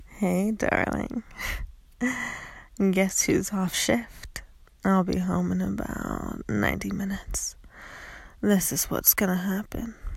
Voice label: Dominant Voicemail